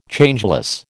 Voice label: "Changeless", American

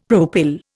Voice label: "propyl", Indian; Dictionary

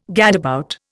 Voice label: "gadabout", Indian

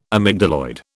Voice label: "amygdaloid", British

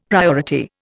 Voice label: "priority", Indian; Dictionary

